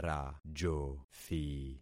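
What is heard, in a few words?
"rajothi", concatenated syllables; Affixing experiment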